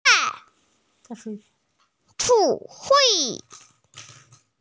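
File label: "ci4wei5" Mandarin Chinese, female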